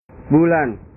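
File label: "bulan", Indonesian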